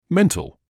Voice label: "mental", English